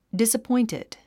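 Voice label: "disappointed", English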